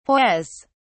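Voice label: "hoise", British English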